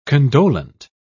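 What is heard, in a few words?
"condolent", British English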